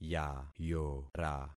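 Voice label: "yayora", concatenated syllables; Affixing experiment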